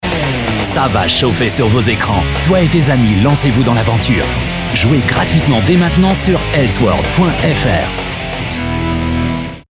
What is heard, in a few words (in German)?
Spot